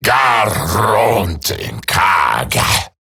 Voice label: Player Spotted Male; en; Dialog; Barks; Battle; Grineer; Eximus